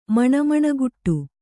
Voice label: "ಮಣಮಣಗುಟ್ಟು", Kannada

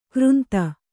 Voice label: "ಕೋರಿಂದೆ", Kannada

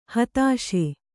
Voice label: "ಹತ್ತಿಸು", Kannada

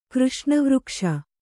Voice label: "ಕೃಷ್ಣವೃಕ್ಷ", Kannada

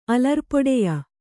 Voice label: "ಅಲರ್ಪೊಡೆಯ", Kannada

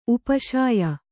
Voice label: "ಉಪಶಾಯ", Kannada

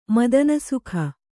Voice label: "ಮದನಸುಖ", Kannada

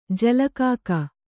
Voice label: "ಜಲಕಾಕ", Kannada

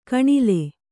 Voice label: "ಘಣಲು", Kannada

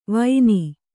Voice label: "ವೈನಿ", Kannada

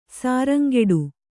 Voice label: "ಶೃಂಗಾಟ", kannada

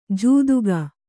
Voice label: "ಜೂದುಗ", kannada

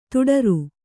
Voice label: "ದಡಾರ", Kannada

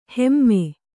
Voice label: "ಹೈಮ", Kannada

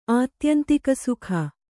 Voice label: "ಆತ್ಯಂತಿಕಸುಖ", Kannada

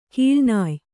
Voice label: "ಕೀಳ್ನಾಯ್", Kannada

